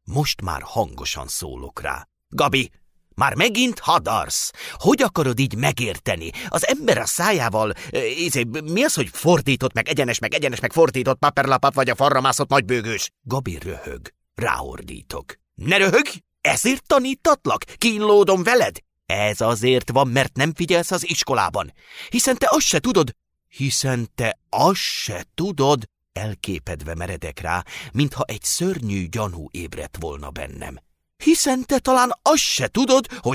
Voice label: hungarian audiobooks